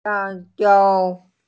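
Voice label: "xiangjiao", Mandarin Chinese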